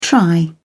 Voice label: "try", English

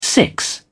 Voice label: "six", English

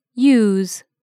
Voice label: "use", English

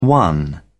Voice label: "one", English

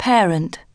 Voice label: "parent", English

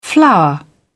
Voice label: "flower", English

